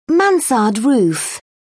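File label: "mansard roof", English, British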